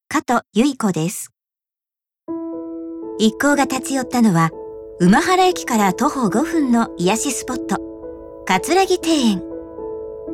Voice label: ノーマル

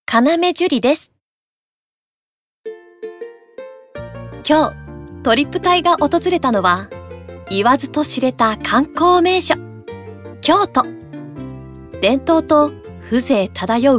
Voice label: ナチュラル

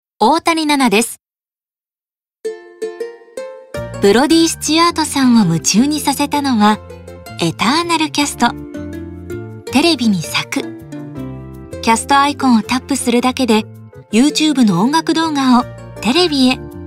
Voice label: ナチュラル

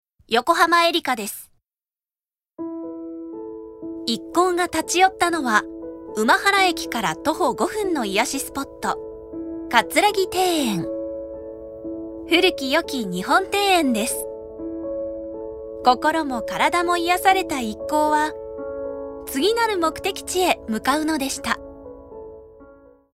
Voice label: ノーマル

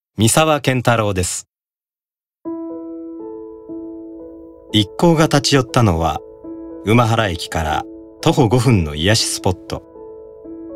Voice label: ノーマル

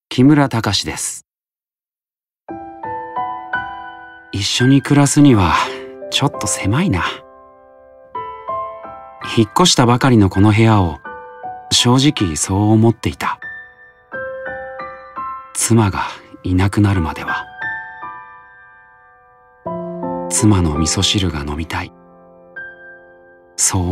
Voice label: モノローグ

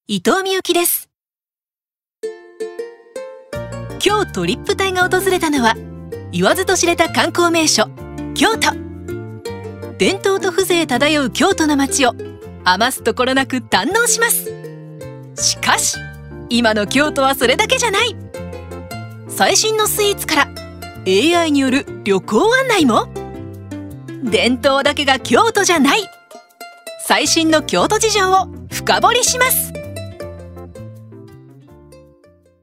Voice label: ナチュラル

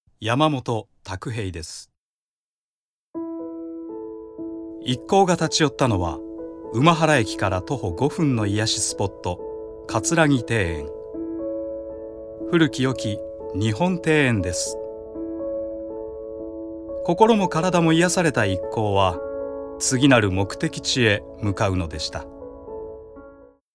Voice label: ノーマル

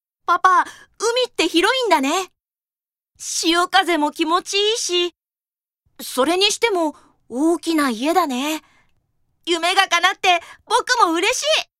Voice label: 男の子

